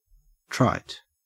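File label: "trite", Australian English; pronunciations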